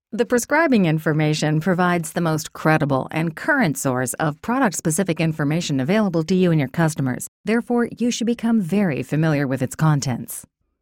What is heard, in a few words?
Med Upbeat Friendly